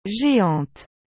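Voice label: "geante", French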